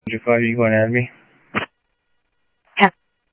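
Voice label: Sheriff East County, scanner audio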